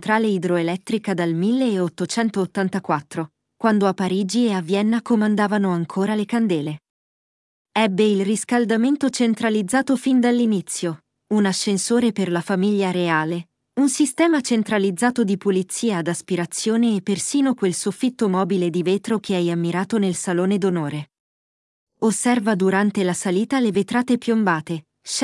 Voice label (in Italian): scala primo piano, audio guide; peles